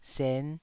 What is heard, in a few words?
"sen5", taiwanese